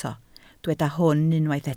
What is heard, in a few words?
"hwn", Welsh